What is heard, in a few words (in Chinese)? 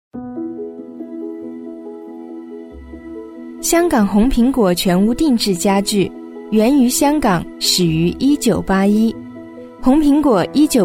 女 企业简介; 甜美轻柔; 甜美亲切; 红苹果家具